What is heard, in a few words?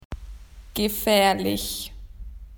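"dangerous", Australian English; PictureDictionary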